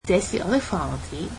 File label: cantonese this is my house